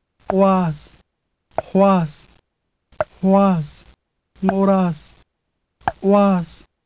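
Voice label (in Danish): nama, alveolær, clicks, alle ordene har højtone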